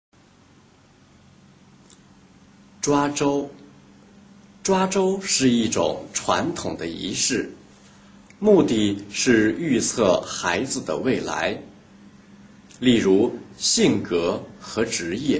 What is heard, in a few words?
chinese reading